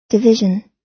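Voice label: "division", American English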